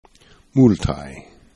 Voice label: "multaj", esperanto

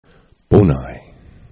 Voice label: "bonaj", esperanto

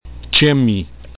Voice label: "gxemi", esperanto

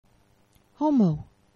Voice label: "homo", Esperanto; geneva

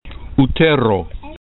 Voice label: "utero", esperanto

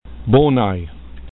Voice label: "bonaj", esperanto

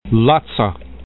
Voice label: "laca", esperanto

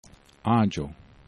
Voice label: "agxo", esperanto

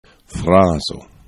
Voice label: "frazo", esperanto

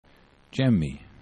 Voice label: "gxemi", esperanto

